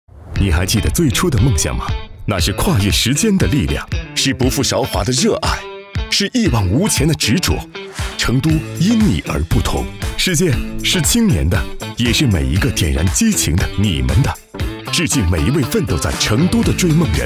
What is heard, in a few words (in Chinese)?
广告活力; 工商银行